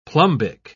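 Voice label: "plumbic", British English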